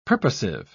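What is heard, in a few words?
"purposive", British English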